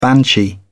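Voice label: "banshee", British English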